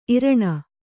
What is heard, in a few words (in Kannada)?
"ಈರಣ", Kannada